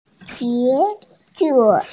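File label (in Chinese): "qie2zi5", Mandarin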